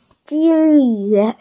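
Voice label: "jin1yu2", Mandarin Chinese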